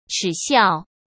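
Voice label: "耻笑", Mandarin Chinese; hsk; chinese-flashcards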